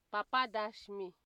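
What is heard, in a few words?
"papa dash me", Nigerian Pidgin, female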